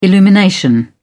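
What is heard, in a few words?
"illumination", British English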